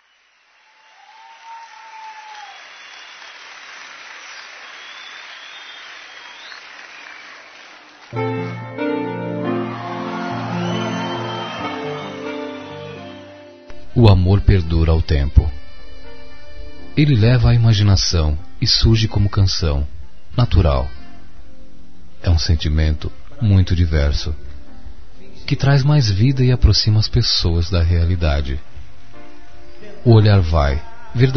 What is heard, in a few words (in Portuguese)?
Masculino, Telemensagens; Dia Dos namorados; Telemensagens 2017